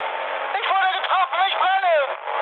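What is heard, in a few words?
German on fire mood high, speech; War Thunder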